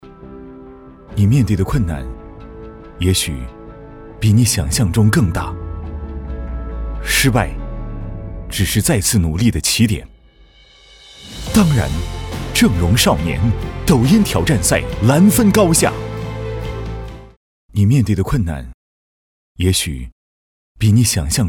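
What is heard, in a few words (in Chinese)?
男【旁白】; 面对困难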